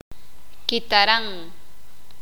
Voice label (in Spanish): "Guitarra", Sakapulteko